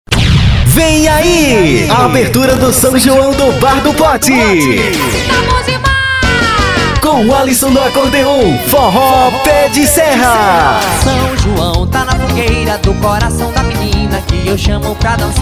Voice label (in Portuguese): vinhetas para quadrilhas juninas anuncio para festa junina spot festa jun